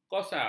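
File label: "kosa", Malay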